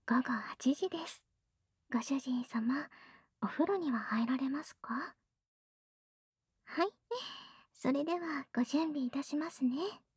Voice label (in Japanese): 時報ボイス 午後8時です; 好感MAX従順奴隷メイド~甘トロ媚び媚び奉仕~